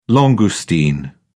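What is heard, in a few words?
"langoustine", British English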